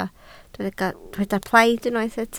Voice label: "plaid", Welsh

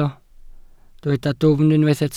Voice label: "dwfn", Welsh

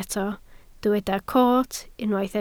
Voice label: "coot", Welsh

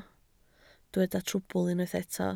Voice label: "trwbl", Welsh

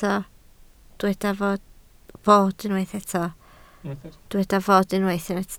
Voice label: "fod", Welsh

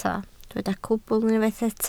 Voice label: "cwbl", Welsh

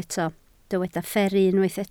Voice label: "fferru", Welsh

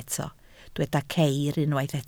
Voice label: "ceir", Welsh

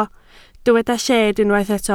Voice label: "lled", Welsh

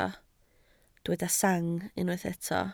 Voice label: "sang", Welsh

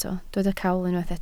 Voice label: "cawl", Welsh

